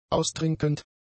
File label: "austrinkend", German; adjectives